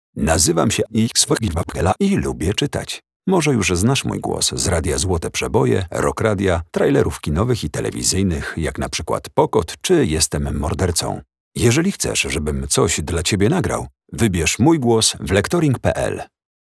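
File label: Polish, male; lektoring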